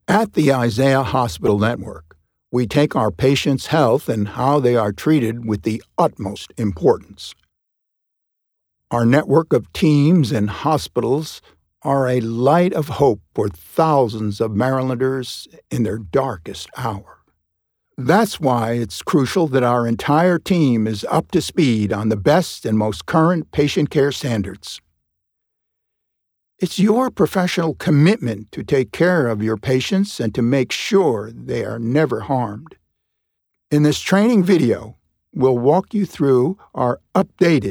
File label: eLearning